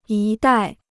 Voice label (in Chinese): "一带", Chinese